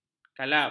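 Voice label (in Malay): "kalap", Malay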